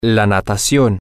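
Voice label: Spanish, swimming, male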